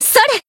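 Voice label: attack, Japanese; ewella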